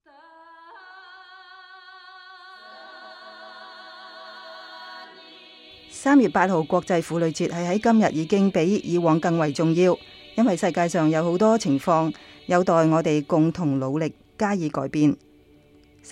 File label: Chinese